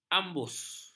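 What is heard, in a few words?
"ambus", Malay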